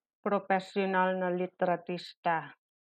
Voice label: tagalog